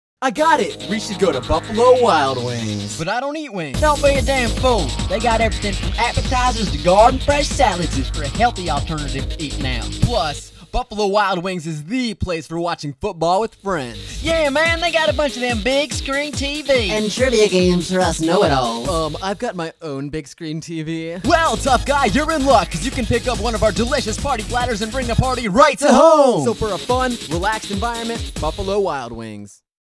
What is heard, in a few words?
commercial; BWW